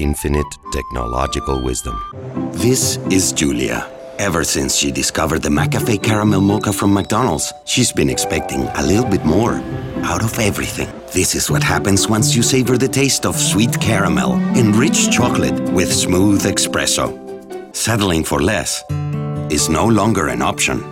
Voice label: English VO Narration